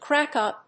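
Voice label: "crack-up", English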